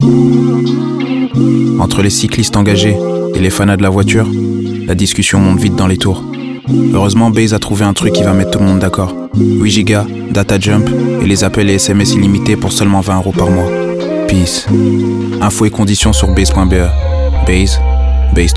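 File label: radio, french; cycliste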